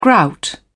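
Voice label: "grout", British English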